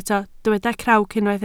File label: "crawc", Welsh